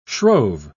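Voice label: "shrove", British English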